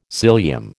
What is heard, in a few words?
"cilium", American